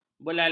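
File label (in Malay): "belalang", Malay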